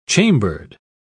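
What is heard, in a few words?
"chambered", British English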